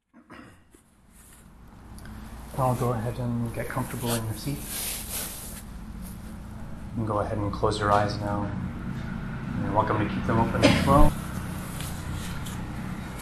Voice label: meditation guided breath